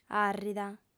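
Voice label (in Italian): "arrida", sarda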